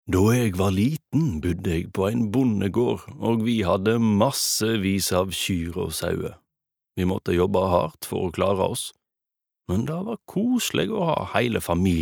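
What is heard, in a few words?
Norwegian dub man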